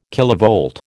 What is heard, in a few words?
"kilovolt", American